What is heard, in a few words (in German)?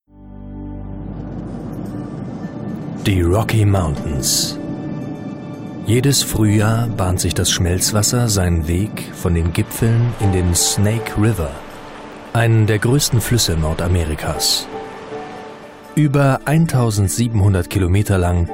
Doku; Idaho